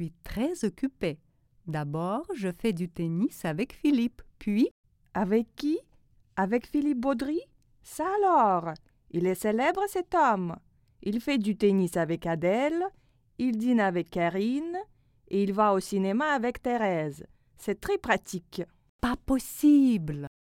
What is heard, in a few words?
dialog